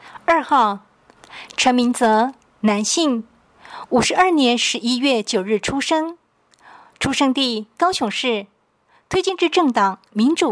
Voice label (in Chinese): 國語, 有聲選舉公報; 第二選區